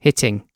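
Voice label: "hitting", British English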